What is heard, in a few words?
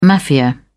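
"mafia", British English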